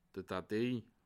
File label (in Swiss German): "datei", Alsace